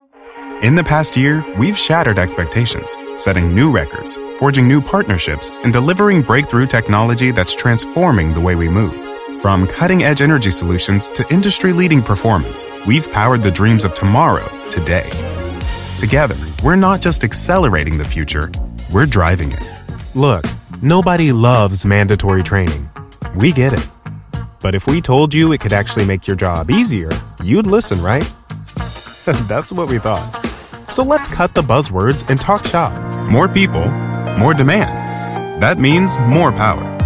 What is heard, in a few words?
Corporate Open Medium; LANDR